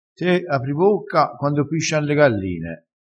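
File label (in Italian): "quando piscian", vocabolario dialettale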